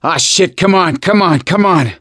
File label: revive friend loud, voice; gambler